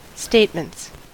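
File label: "statements", US English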